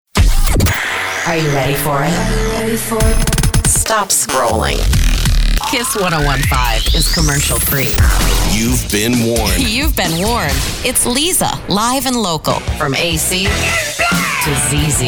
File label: VO Imaging